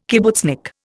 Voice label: "kibbutznik", Indian

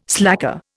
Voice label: "slacker", Indian

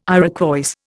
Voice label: "Iroquois", Indian

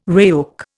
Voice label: "RAOC", Indian

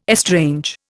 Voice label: "estrange", Indian

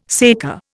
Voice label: "saker", Indian

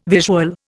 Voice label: "visual", Indian

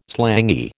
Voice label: "slangy", American

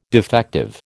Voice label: "defective", American

